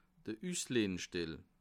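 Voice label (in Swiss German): "usleihstell", Bas Rhin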